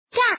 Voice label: "angang", woman, mahjong; ningxia